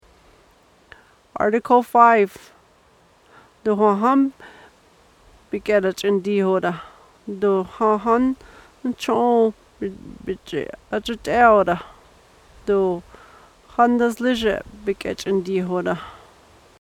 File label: Apache